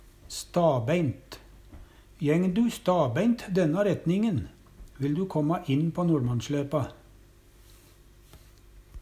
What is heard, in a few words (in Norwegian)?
stabeint, Numedalsmål; Eksempel på bruk Jeng du stabeint denna retningen, vil du kåmma inn på NoLmannsslepa.